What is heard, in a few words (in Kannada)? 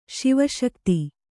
"ಶಿವಶಕ್ತಿ", Kannada